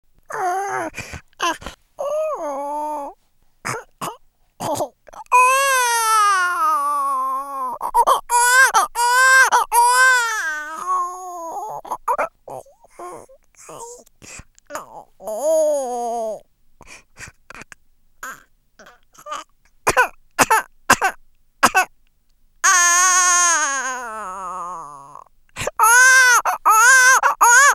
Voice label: animation babies; Creature